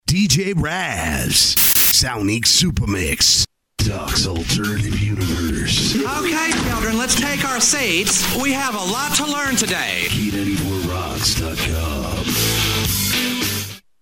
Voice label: show promo